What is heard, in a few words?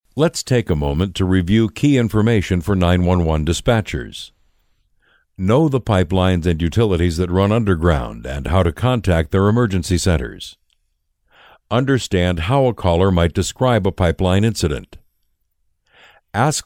Tutorial; Nebraska